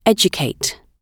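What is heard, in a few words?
"educate", British English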